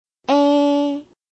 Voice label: "ㄝ", Chinese